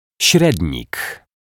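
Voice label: "srednik", Polish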